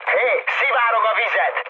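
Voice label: Hungarian damaged water mood high, speech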